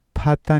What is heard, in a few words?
"phata", cusco quechua